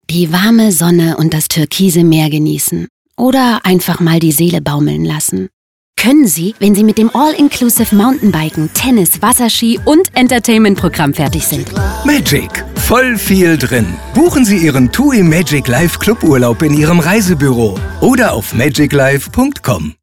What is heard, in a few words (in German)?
Funkspot; TUI; Magic Life; bunch sound; Berlin; Funkspotproduktion